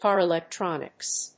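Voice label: "Car Electronics", English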